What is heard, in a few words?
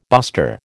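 "buster", American English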